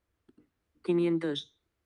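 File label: "quinientos", Spanish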